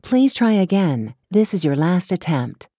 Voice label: ivr id incorrect final attempt